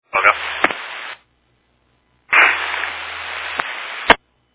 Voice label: Fire Dispatch